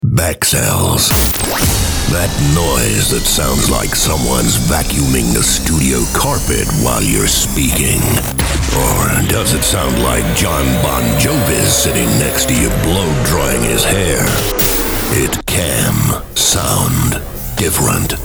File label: promospot; ABS; US